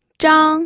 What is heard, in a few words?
"zhang1", pinyin